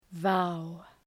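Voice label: "vow", English word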